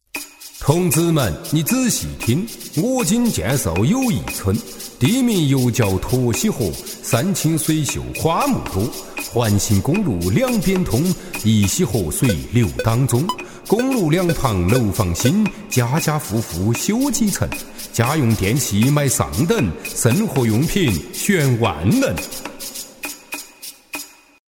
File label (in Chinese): 男 四川话方言 快板; 中英双语; 轻松活泼; 美好生活